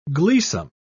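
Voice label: "gleesome", British English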